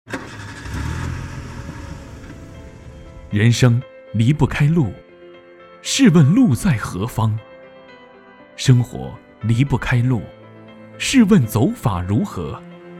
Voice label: 汽车广告; 荣威

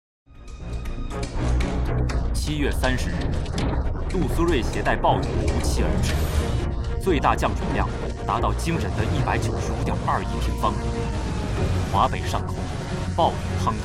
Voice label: 新闻专题片

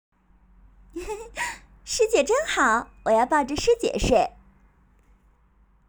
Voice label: 喜悦

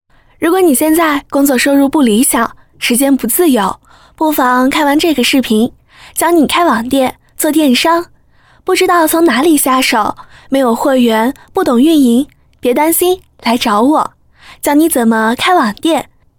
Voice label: 自然轻松

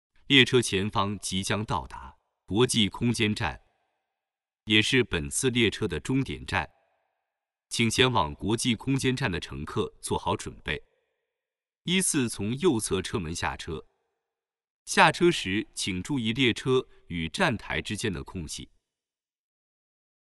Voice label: 中英文配音

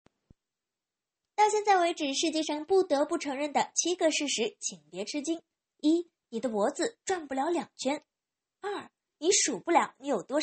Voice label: 女声抖音怪科普解说

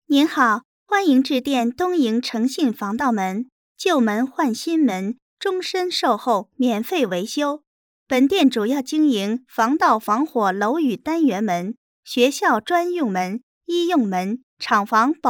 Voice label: 彩铃配音

